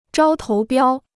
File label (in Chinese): "招投标", Chinese